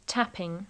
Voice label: "tapping", English